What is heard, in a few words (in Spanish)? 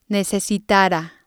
"necesitara", spanish